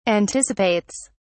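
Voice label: "anticipates", English, British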